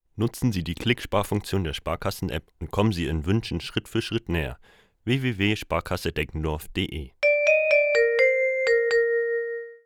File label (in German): Werbung Reminder; Sparkasse